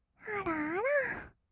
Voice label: 「あらあら」, kawaii voice; 1200 kawaii voice!!; 色々なワンショット

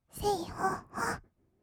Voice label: "せいほっほっ", kawaii voice; ASMR; 1200 kawaii voice!!; 音ゲー

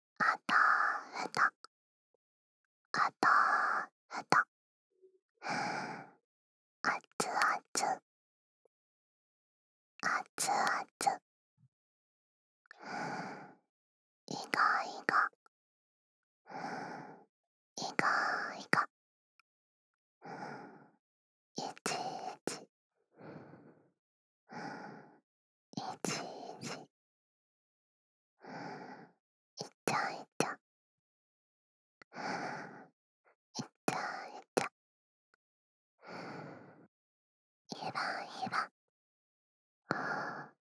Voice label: 至近距離オノマトペ

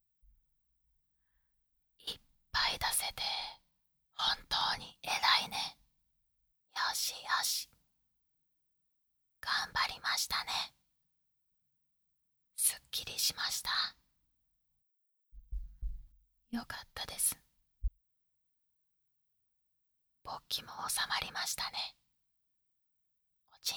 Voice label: 胸に顔を埋めて添い寝でおやすみ, ASMR; いずみさんの胸に顔を埋めて添い寝でおやすみ

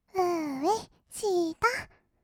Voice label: "うーえ しーた", kawaii voice; ASMR; 色々なワンショット

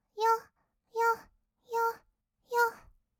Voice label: よっよっ, kawaii voice; ASMR; 合いの手; 1200 kawaii voice!!

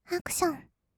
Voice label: "アクション", kawaii voice; ASMR; 1200 kawaii voice!!; 音ゲー

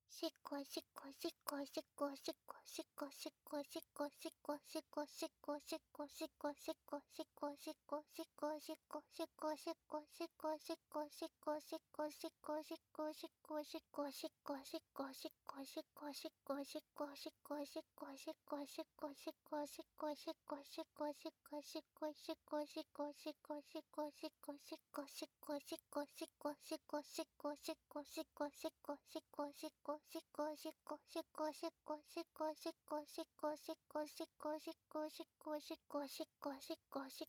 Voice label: しこしこボイス 中速, 汎用エロボイス; おまけ音声（汎用指示ボイス・汎用エロボイス）

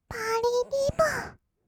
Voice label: "ぱーりーぴーぽー", kawaii voice; ASMR; 1200 kawaii voice!!; 音ゲー

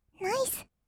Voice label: "ナイス", kawaii voice; ASMR; 色々なワンショット; 1200 kawaii voice!!

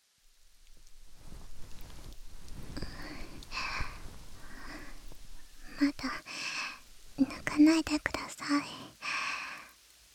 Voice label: 中出し後のピロートーク; ASMR

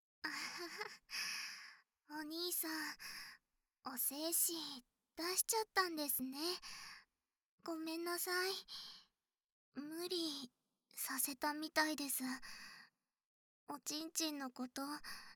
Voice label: 失敗ボイス, ASMR

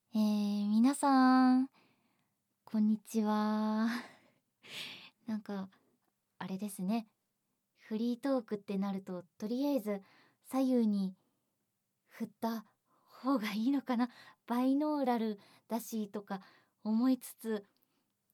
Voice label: フリートーク; ASMR

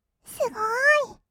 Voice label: すごーい, kawaii voice; ASMR; 色々なワンショット

